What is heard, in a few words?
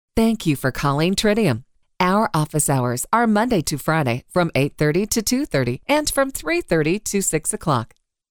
Phone